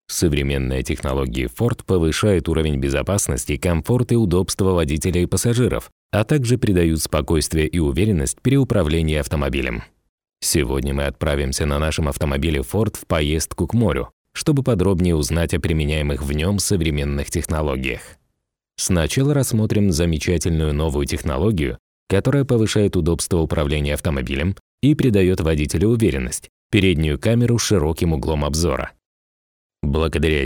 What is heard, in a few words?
russian corporate